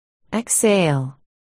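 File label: "exhale", spoken word, articulation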